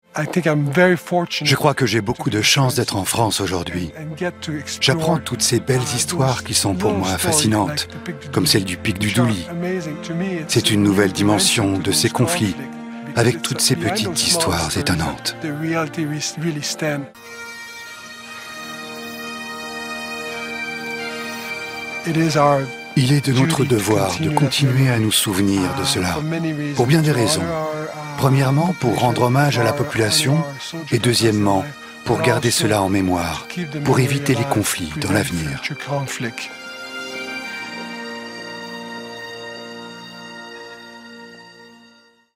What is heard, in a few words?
Voice over